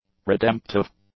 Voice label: "redemptive", English; adjectives

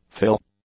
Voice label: "fill", English; nouns

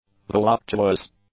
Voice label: "voluptuous", English; adjectives

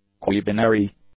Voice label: "quibinary", English; adjectives

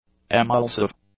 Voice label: "emulsive", English; adjectives

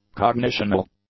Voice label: "cognitional", English; adjectives

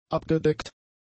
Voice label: "abgedeckt", German; adjectives

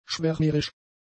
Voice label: "schwärmerisch", German; adjectives

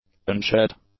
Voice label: "unshed", english; adjectives

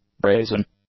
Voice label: "brazen", English; adjectives

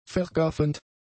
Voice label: "vergaffend", German; adjectives

